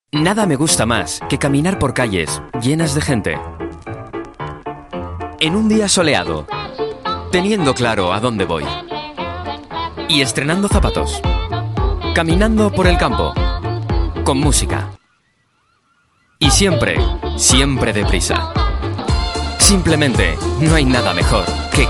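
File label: Spanish European, Commercial; GoLocalise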